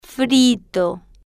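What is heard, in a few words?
"frito", slow, Spanish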